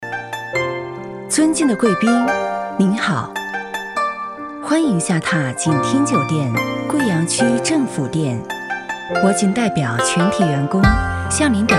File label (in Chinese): 女 广播 尊敬的宾客